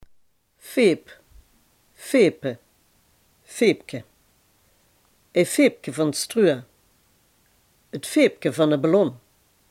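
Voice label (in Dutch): "fluitje" Limburgish dialect word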